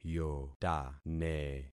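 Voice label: "yotane", concatenated syllables; Affixing experiment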